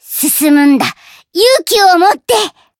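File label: variable chant, Japanese; white nopou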